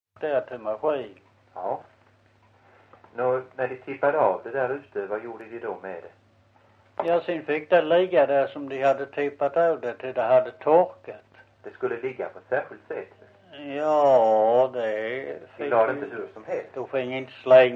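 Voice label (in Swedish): Visseltofta Skåne, Svenska sagor dialekter; Folkminnen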